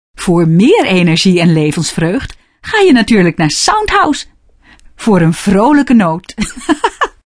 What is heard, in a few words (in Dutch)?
vrolijke noot, voiceover; commercial